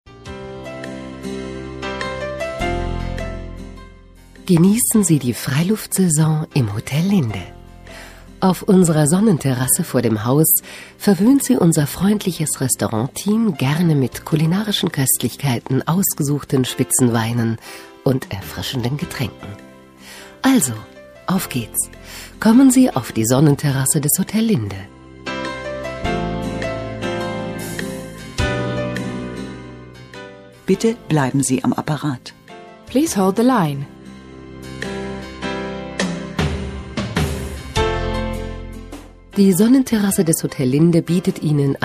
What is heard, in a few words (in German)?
Werbespot; Nouveau Relais Hotel Linde